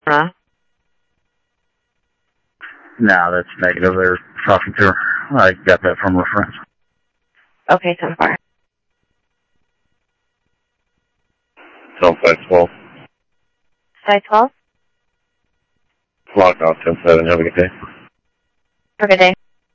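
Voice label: Lakes Sheriff Lake Patrol, scanner audio trunk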